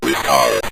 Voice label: "restart", Synth Voice; Kenney's Sound Pack